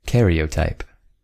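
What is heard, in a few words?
"karyotype", American English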